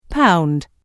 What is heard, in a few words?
Telephone prompt: "Pound", Pre-recorded telephone on-hold message; Recorded Voice Sound Effects